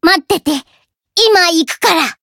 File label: variable chant, jp